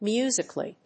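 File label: "musically", English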